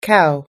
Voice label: "cow", US English

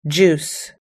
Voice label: "juice", us-english

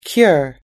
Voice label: "cure", us-english

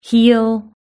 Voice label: "heel", British English

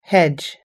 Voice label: "hedge", us english

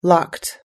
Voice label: "locked", us-english

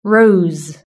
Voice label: "rose", British English

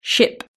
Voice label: "ship", British English

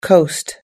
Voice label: "coast", us-english

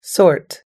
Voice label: "sort", us-english

